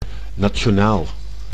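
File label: "nationaal", Dutch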